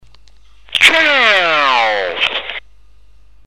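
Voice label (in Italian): "ciao", Italian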